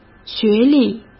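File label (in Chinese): "学历", Mandarin; chinese-flashcards; hsk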